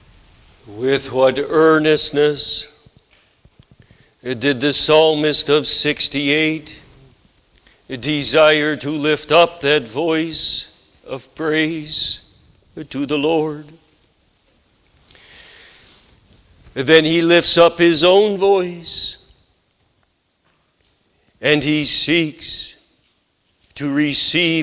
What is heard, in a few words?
Sermon; The Lord's Crowning Goodness; psalm 65 11